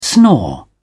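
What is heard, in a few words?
"snore", British English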